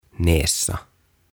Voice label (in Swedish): "Näsa" "Nenä"